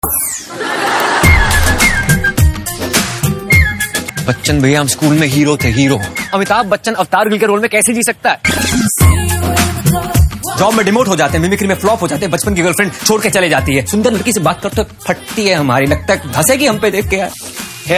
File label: Dailogue, Hindi; Bala Trailer; Ayushmann Khurrana